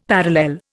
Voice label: "parallel", Indian; Dictionary